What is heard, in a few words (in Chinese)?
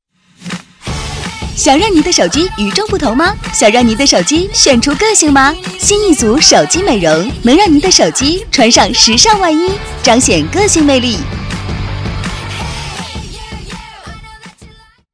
女 电台广告 手机美容 电台活力版